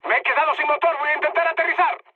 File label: damaged engine, mood high, speech; War Thunder